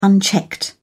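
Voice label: "unchecked", British English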